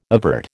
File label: "evert", American